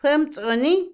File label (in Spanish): "aullar", Otomí, mujer; Habla; Vocabulary; INALI